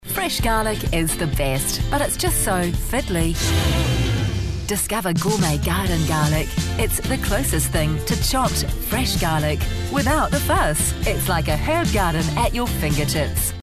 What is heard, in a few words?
Gourmet Garlic TVC